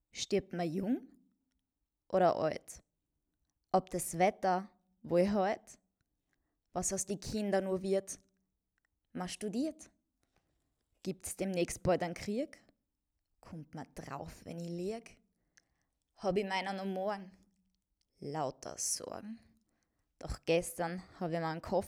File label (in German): Mundart Gedicht; Hörbuch Mundart Gedicht